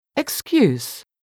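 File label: "excuse", English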